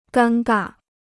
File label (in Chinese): "尴尬", Chinese